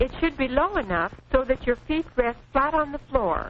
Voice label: feet on the floor, Quirky Female Typing Instructor; VOCALS and SPOKEN WORD